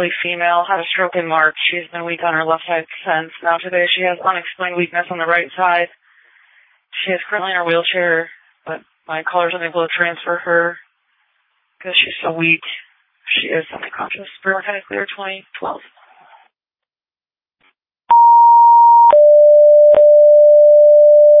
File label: Ambulance, dispatch